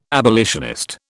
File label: "abolitionist", British